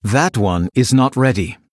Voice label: Male Blood Elf ERROR SUMMON SICKNESS